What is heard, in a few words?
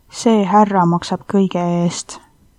pay, estonian